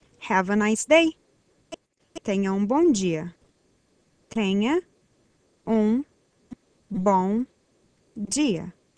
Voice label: Portuguese Nice Day